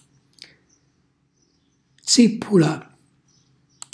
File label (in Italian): "zìppula", Calabrese; cucinacalabrese; glossario